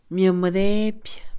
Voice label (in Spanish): obedecer, Mixe, Mujer; INALI